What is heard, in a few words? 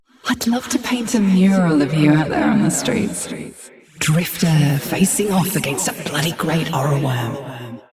Ambient Barks, en; Warframe; Mall Romance; Eleanor